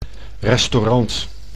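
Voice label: "restaurant", Dutch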